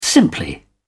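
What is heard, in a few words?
"simply", British English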